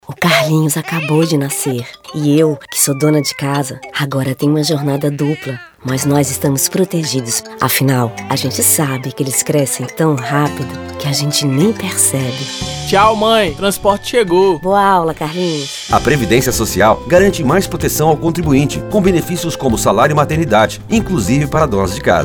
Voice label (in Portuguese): Spots; SALARIOS